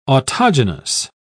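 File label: "autogenous", British English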